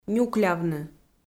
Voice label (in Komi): "нюклявны", Komi